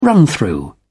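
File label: "run-through", English, British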